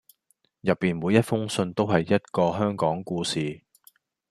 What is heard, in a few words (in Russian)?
Голоса, Гонконгский